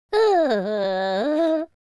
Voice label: sad, Spanish; speech

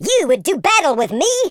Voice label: go away, speech, Super Hero

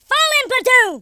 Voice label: "collect", Drill Sergeant; speech

